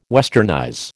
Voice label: "westernize", American